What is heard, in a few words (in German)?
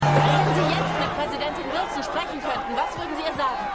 Reporterin; For All Mankind